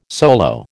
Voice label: "solo", American